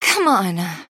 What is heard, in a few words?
pain death; female